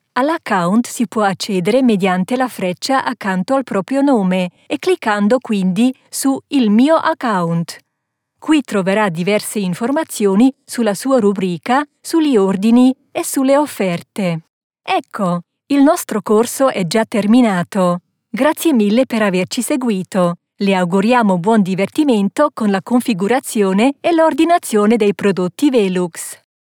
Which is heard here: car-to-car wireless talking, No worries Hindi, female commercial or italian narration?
italian narration